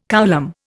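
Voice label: "coulomb", Indian English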